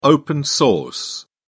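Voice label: "open-source", British English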